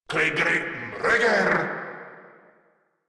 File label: Player Spotted, Grineer Male